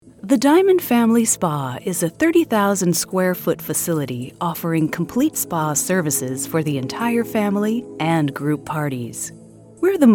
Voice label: US narration

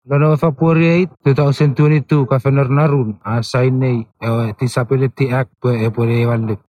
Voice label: Chuukese

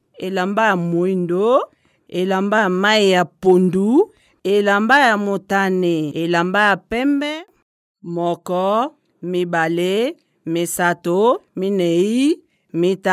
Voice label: LINGALA

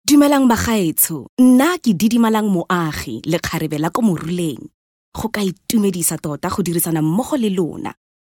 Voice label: SETSWANA

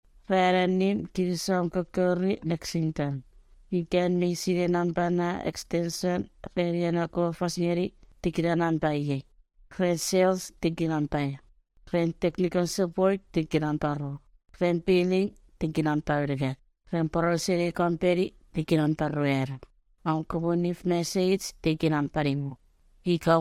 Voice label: Chuukese

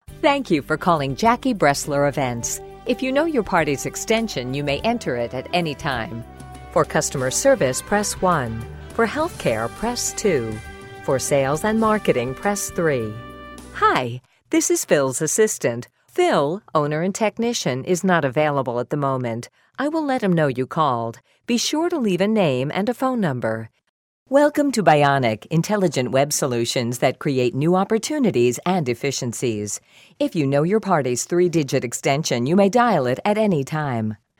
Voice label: US IVR

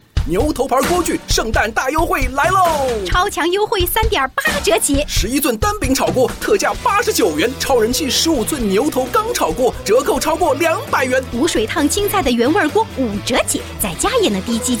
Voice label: upbeat

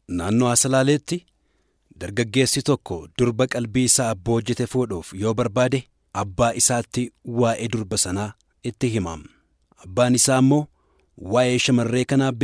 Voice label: Oromo

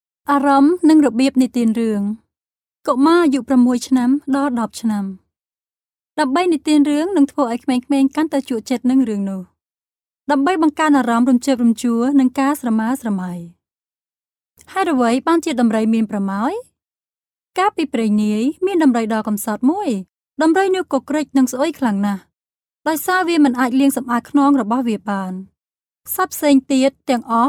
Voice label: Khmer

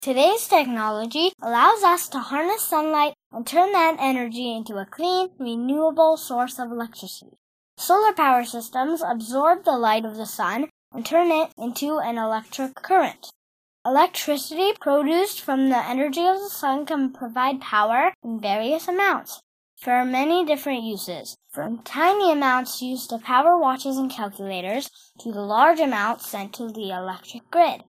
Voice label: US boy; children

